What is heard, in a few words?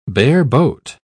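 "bareboat", British English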